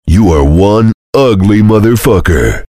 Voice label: soldier jeers